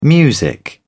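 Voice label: "music", British English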